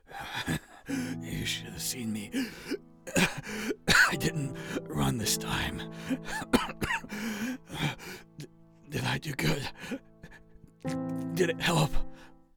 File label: Video Game dying character